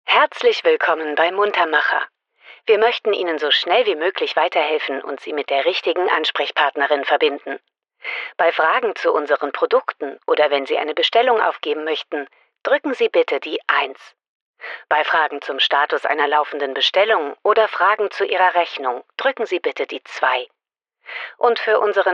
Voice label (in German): Telefonansage Sprecherin Effekt; freundlich & zuvorkommend